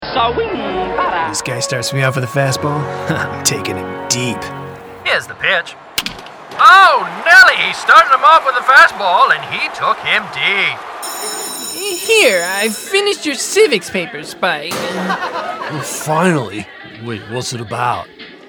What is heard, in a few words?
Animation